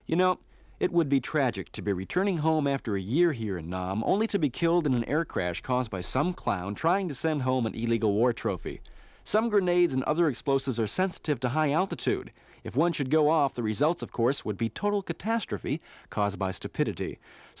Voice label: Radio Spot: War Souvenir Advisory And Weather Report; Mono